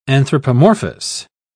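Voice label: "anthropomorphous", English, British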